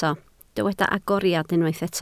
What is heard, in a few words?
"agoriad", Welsh